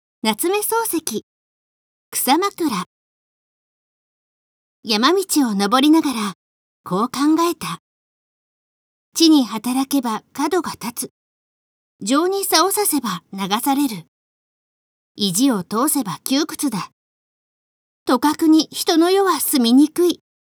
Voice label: girl; 草枕; 夏目漱石